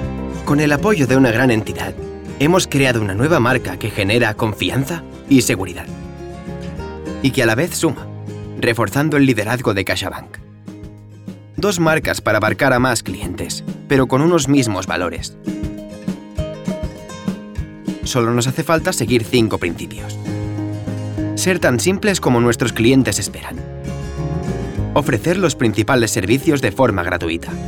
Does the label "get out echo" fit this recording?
No